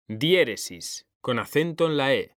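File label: "DIÉRESIS", Spanish; mekanta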